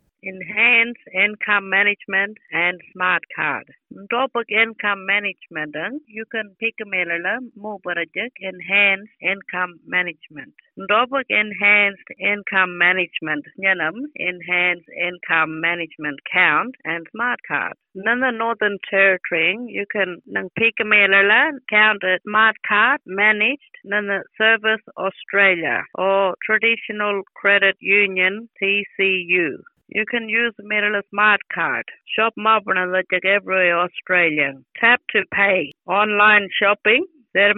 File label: arrernte; eim and smartcard